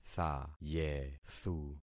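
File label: "thayethu", concatenated syllables; Affixing experiment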